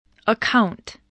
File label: "account", English voice